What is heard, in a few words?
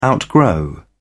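"outgrow", British English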